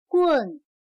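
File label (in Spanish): "棍", chino mandarin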